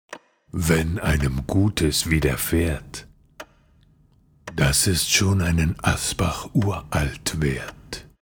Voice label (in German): werbung; asbach uralt; Dominik Dachs und d Katzepirate